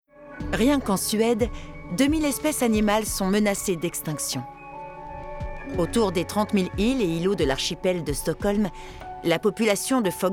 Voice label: concernée, voix off, comédienne; Doc; Arte; Suède, bain de nature

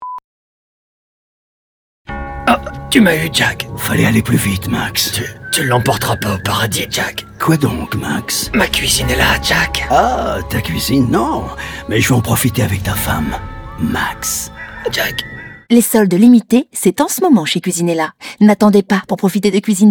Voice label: signature droite mention, voix off comédienne; Cuisinella

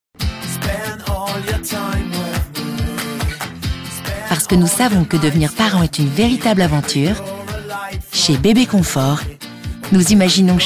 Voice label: maman posée droite, voix off comédienne; Bébé Confort

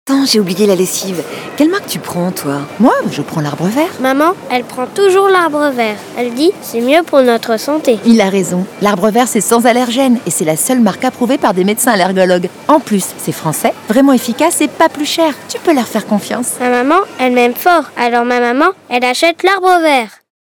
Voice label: comedie voix; Arbre Vert; Complice

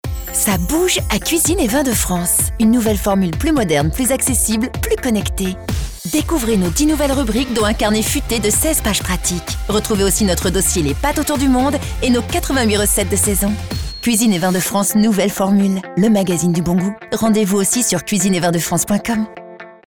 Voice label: Spot radio vins de France tonique rapide enthousiaste

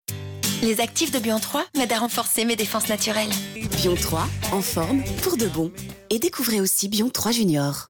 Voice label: Girly voix; Bion3

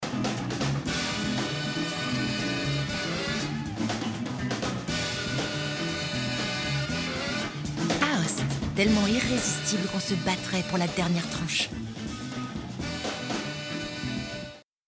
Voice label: Pub Tv signature clin doeil; Aoste

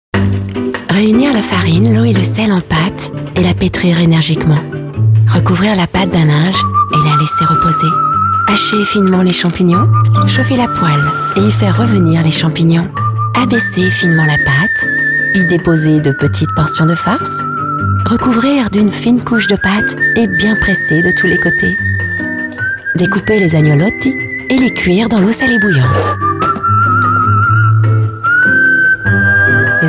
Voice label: Voix douce; Annas Best; recette pour etre heureuse; Complice

